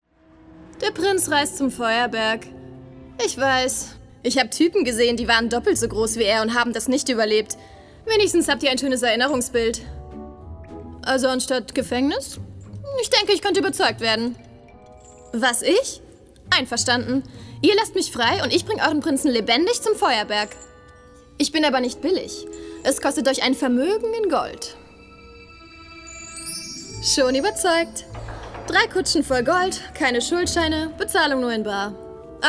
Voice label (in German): Animation, sprecherin